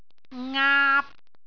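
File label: "ngaap3", Cantonese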